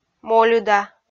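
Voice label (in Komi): "мольыда", Komi, female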